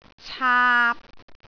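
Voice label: "caap3", Cantonese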